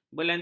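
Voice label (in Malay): "belantara", Malay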